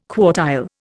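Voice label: "quartile", Indian English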